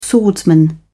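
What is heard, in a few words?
"swordsman", British English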